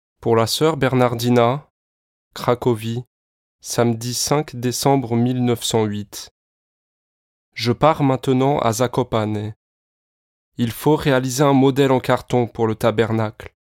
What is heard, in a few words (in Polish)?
Lektor francuski; Studio Nonagram